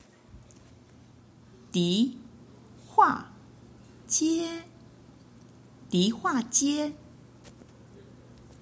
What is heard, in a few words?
dihuajie pronunciation chainese